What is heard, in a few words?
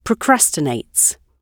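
"procrastinates", British English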